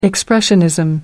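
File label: "expressionism", British English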